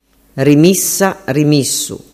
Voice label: "rimissa missu", dialettu

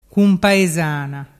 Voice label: "cumpaesana", dialettu

